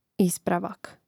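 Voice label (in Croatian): "ispravak", hrvatski; mreznik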